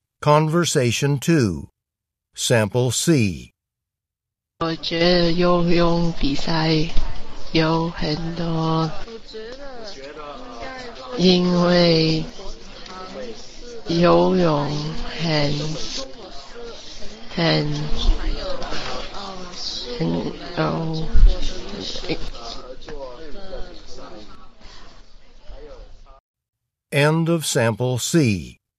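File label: chinese conversation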